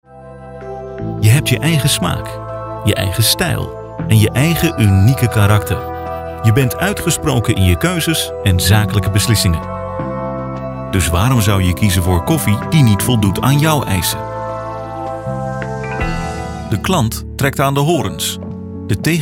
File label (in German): K NL Fremdtake Freundlich